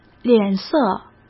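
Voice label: "脸色", Mandarin Chinese; chinese-flashcards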